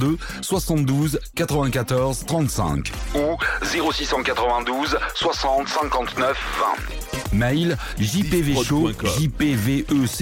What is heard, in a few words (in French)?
Spot Assurance, publicite; First Reunion; SRCA